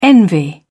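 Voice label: "envy", English, British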